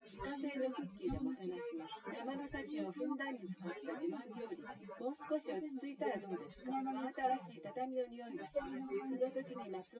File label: test female synthconv 130ms 1m src; SiSEC08; SiSEC underdetermined